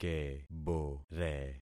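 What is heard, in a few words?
"kebore", concatenated syllables; Affixing experiment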